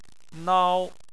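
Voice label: "nao", Chinese, first tone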